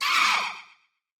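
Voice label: Giggling Minister damage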